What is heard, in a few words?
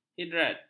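"hidrat", Malay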